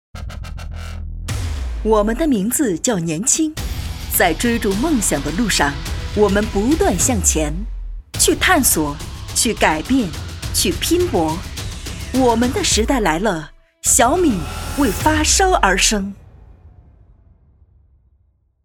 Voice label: 时尚律动广告; 小米

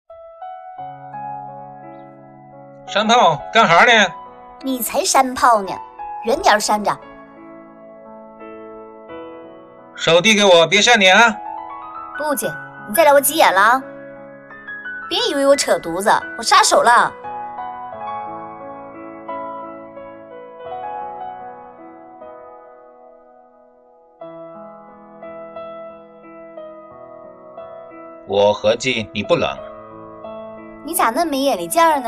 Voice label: 东北话版 对白, 男; 泰坦尼克号

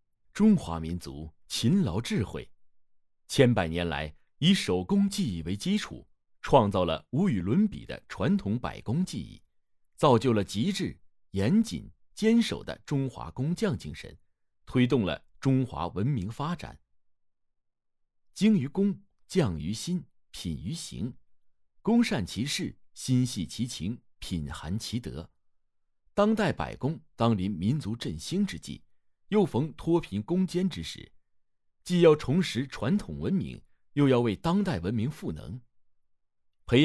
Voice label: 技师 试音 中速; 百工

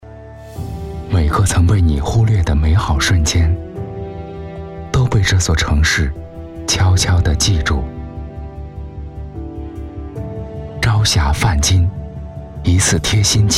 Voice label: 宣传 城市 走心宣传片; 盖州

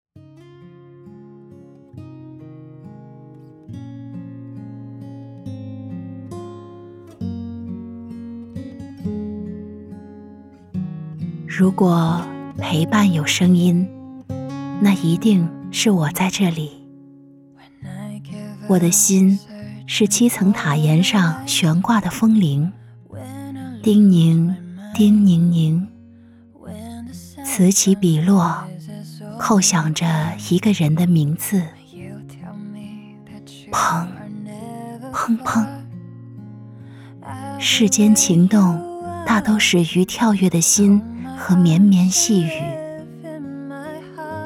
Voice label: 普 公众号 温馨 文案

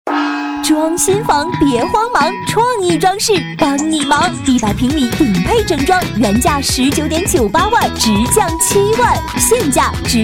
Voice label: 硬广; 创艺硬广成品

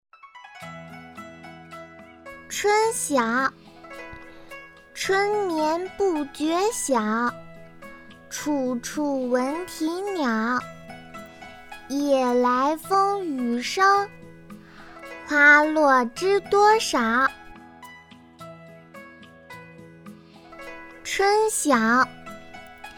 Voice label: 女童 古诗 语速慢

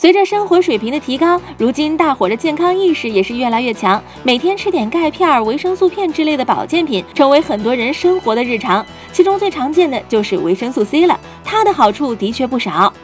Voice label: 电视节目配音片段

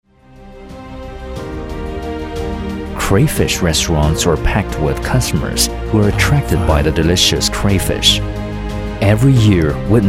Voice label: 英文样音; 潜江龙虾英文样音

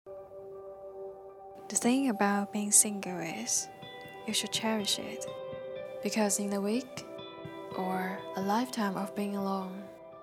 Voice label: 电影片段 英文 内心独白 女声

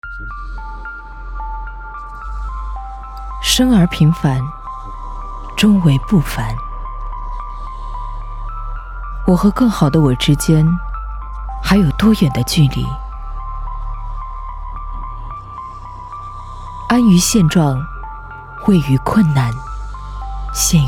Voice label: 旁白励志

